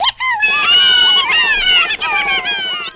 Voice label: "yippie", cartoon